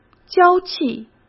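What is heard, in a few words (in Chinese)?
"娇气", Mandarin Chinese; chinese flashcards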